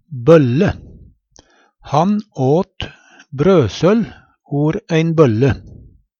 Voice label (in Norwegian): bolle, Numedalsmål